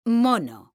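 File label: "mono", Spanish, female; mekanta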